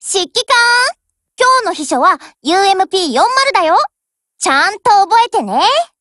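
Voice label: HELLO, Japanese; GF Alarm; UMP40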